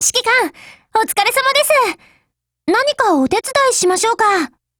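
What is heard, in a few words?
hello, Japanese; 81typeR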